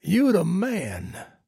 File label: trader male like quest accepted